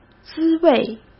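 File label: "滋味", Mandarin Chinese; chinese flashcards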